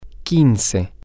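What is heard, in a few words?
Spanish, female; fifteen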